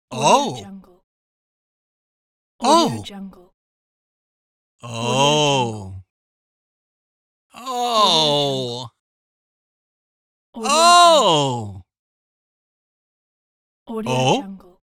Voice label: male saying "oh"

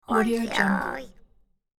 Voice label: cartoon crow worried; cartoon sounds

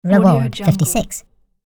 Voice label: cartoon voice says reward

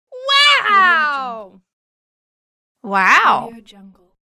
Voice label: female saying excited "wow"; تعجب زن هیجانزده; human sounds

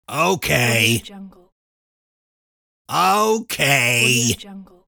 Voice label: cartoon grouchy okay

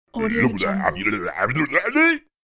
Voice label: caveman worried loudly; cartoon

